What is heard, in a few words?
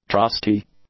"trusty", English; adjectives